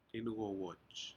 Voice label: "incowaj", Aneityum; turtles